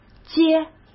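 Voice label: "街", Mandarin Chinese; chinese flashcards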